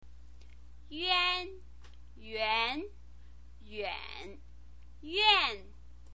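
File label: "van", Mandarin Chinese pinyin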